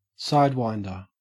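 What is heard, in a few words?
"sidewinder", Australian English; pronunciations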